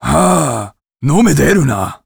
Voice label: Warrior emotion curious

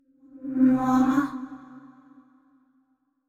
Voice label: sad short; yamiko

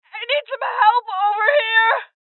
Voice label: Voices Injury Female; rebel cops 2019

